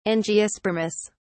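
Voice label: "angiospermous", British English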